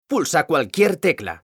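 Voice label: "pulsa cualquier tecla", Spanish; mekanta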